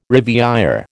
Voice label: "rivière", American